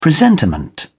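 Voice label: "presentiment", British English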